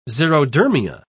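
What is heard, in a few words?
"xerodermia", British English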